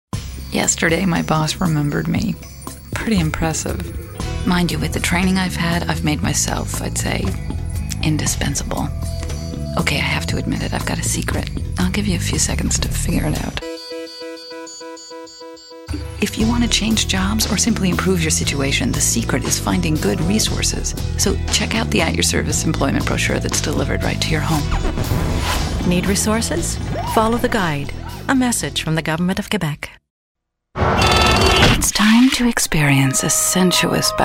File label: voice, women